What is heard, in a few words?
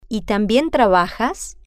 "y También Trabajas", spanish